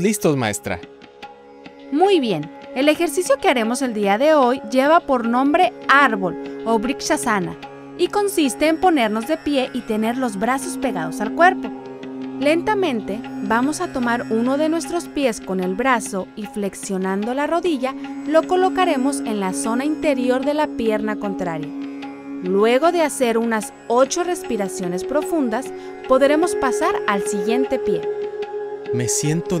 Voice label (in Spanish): LA CLASE DE YOGA DIALOGO MX